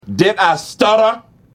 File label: stutter; the office